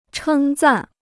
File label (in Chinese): "称赞", Chinese